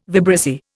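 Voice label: "vibrissae", English word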